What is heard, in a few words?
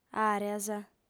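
"àreas", Sardinian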